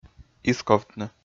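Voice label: "исковтны", Komi, male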